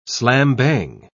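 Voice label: "slam-bang", British English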